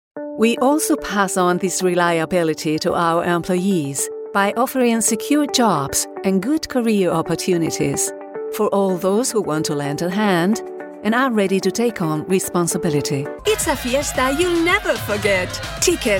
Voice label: spanish female english with a spanish accent

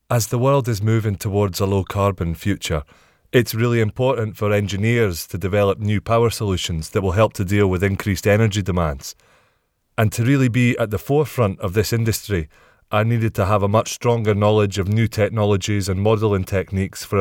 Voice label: natural

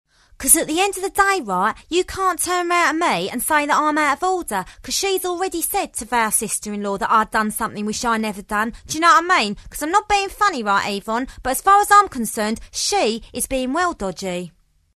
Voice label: londoner

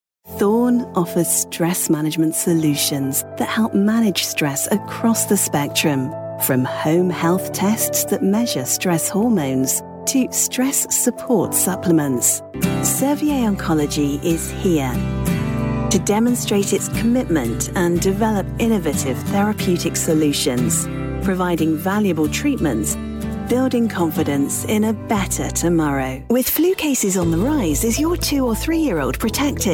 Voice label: calm confident and reassuring

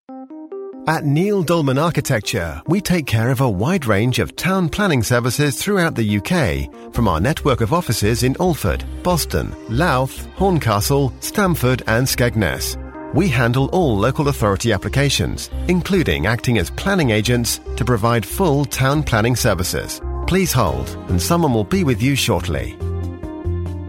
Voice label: telephone

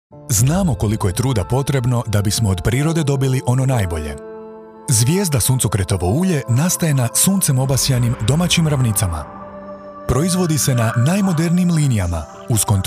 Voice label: croatian male, commercial

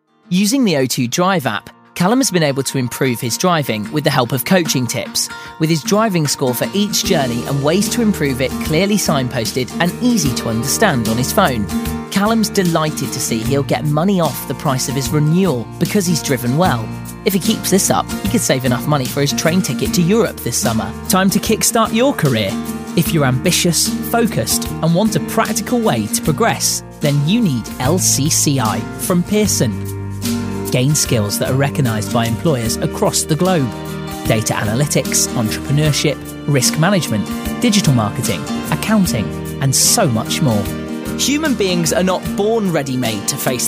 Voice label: corporate

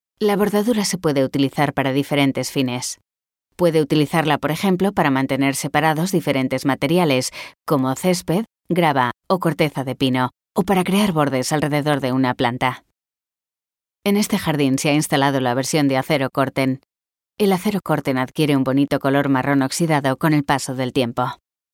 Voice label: spanish training

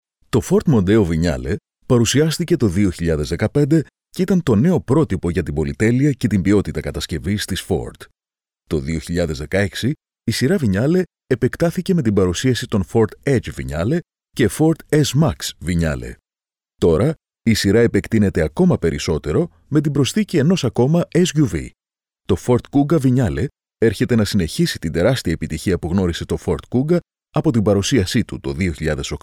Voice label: greek voice corporate

